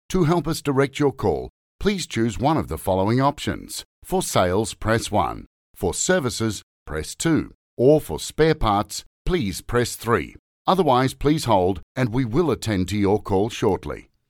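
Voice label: english australian interactive voice response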